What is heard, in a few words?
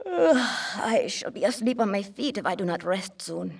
female; baldurs1